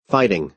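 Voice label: "fighting", American English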